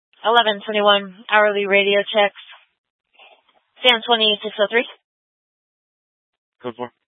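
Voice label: Sheriff Dispatch, Scanner audio recording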